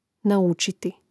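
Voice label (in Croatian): "naučiti", Hrvatski; neizvorni; mreznik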